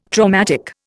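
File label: "traumatic", Indian English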